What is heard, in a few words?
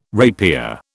"rapier", British